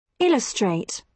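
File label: "illustrate", British English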